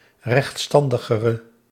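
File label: "rechtstandigere", Dutch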